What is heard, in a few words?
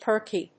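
"perky", English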